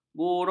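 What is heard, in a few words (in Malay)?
"buruk", Malay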